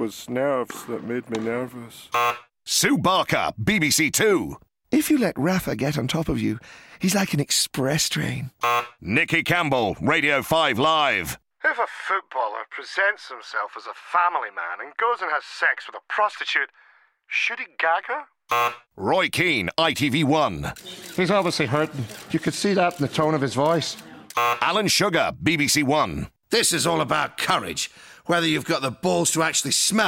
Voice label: commentator